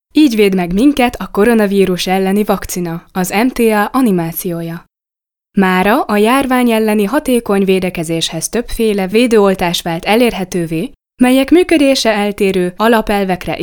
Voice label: Hungarian Female